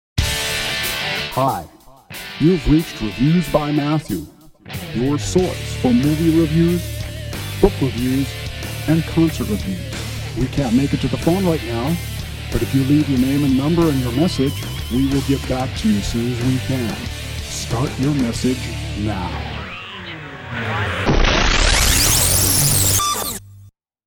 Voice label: phone message